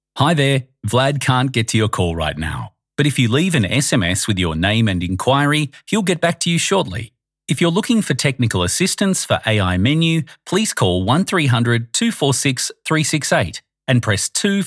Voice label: Phone Ai Menu Systems Voicemail Greeting; professional voicemail greeting

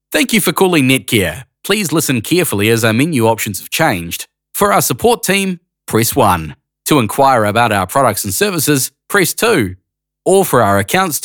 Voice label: Phone Main Prompt; Netcare Ltd

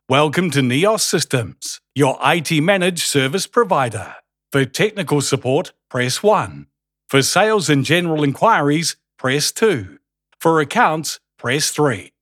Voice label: Phone Main Greeting; Neos Systems

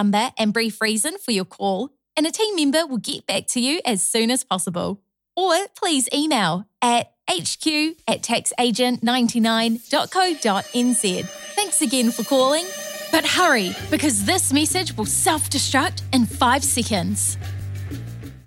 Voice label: accounting tax agent office voicemail; tax agent 99; accounting phone greetings

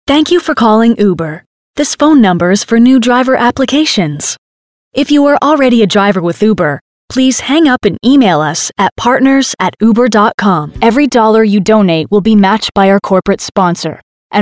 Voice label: US PHONE

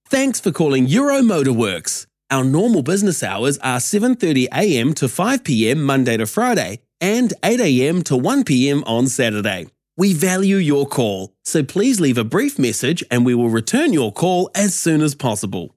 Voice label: Phone Mobile Voicemail; Euro MotorWorks

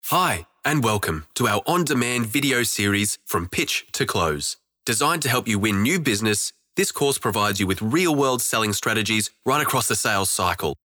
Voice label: Phone Video

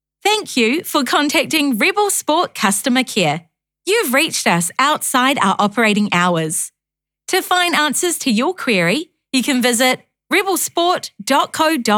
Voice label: Phone Afterhours; Briscoe Group Rebel Sport; professional voicemail greeting

